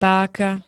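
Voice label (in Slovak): "páka", Slovak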